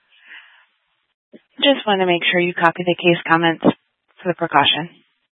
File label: Fire Departments, scanner